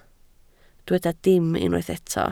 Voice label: "dim", Welsh